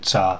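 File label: "ca", Mandarin Chinese pinyin; pinyin sounds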